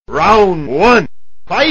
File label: Round Announcer